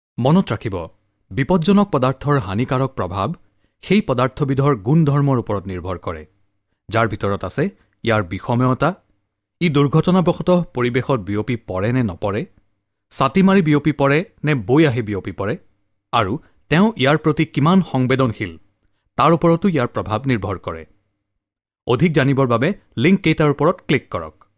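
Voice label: Assamese, narration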